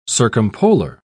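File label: "circumpolar", British English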